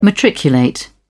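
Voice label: "matriculate", British English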